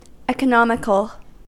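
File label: "economical", US English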